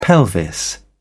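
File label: "pelvis", English, British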